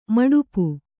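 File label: "maḍipu" Kannada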